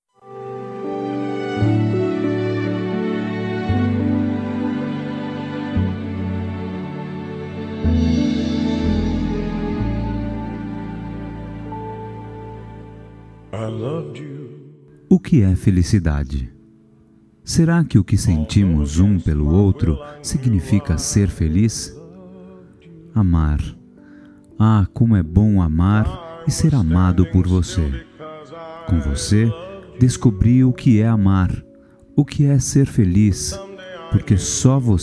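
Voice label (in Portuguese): DIA DOS NAMORADOS, Masculino; Telemensagens 2017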